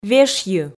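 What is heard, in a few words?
"вешйы", Komi, female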